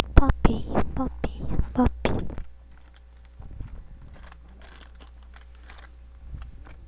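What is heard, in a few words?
"puppy", spoken word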